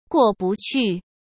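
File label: "过不去", Mandarin Chinese; hsk; chinese-flashcards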